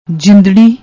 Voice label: "jindarri", Punjabi

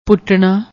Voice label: "puttna", Punjabi

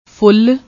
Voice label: "full", Punjabi

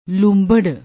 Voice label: "loombarr", Punjabi

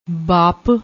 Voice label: "baap", Punjabi

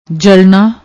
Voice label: "jalnaa", Punjabi

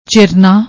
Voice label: "chirna", Punjabi; ਚਿਰਨਾ

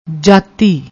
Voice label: "jaati", Punjabi

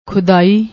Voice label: "khudai", Punjabi

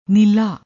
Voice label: "nilla", Punjabi

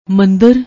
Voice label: "mandar", Punjabi

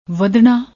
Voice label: "vadna", Punjabi; ਵਧਣਾ

